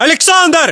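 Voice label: "aleksandr", battlechatter, Russian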